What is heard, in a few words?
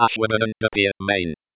AshDP Main, Computer Voice